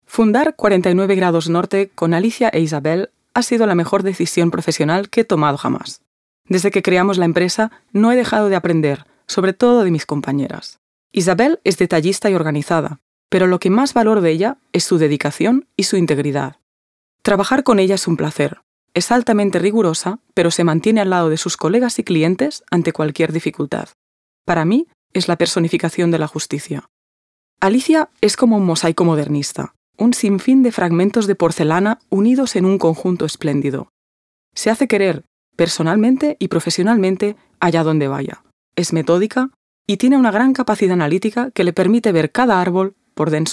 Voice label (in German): Vorstellung, ES